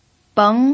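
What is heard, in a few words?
"beng", pinyin, second tone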